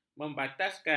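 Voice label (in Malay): "membataskan", Malay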